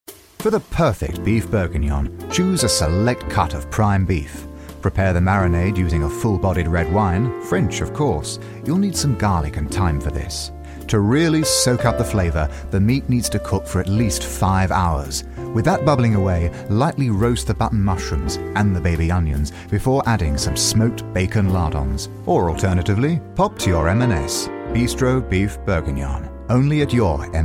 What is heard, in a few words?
advert